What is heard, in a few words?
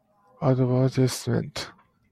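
"advertisement", Japanese, male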